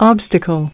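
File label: "Obstacle", American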